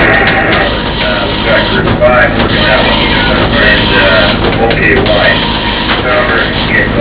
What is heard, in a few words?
Dispatch